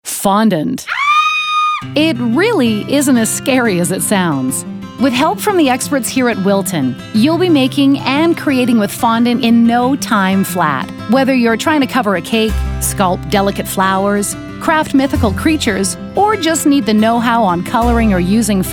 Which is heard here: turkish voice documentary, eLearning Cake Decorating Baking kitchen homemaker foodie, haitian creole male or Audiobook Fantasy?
eLearning Cake Decorating Baking kitchen homemaker foodie